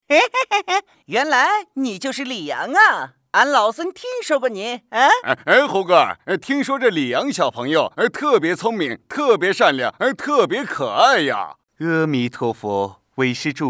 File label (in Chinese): 男 模仿 祝福语; 模仿西游师徒四人祝福语